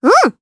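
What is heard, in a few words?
Vox Japanese; Annette; kingsraid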